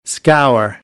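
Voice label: "scour", American English; AmericanBritish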